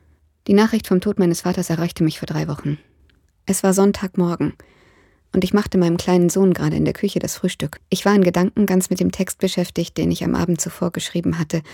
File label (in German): HOERBUCH; AUSTER